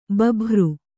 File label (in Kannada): "ಭೂಭಾರ", Kannada